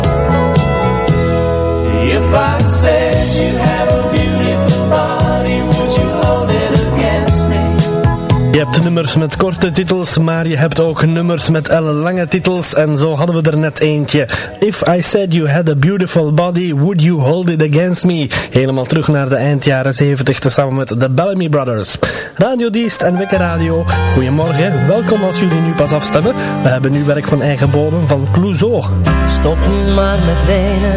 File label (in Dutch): Wekkerradio; Diest